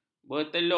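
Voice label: "bertelur", Malay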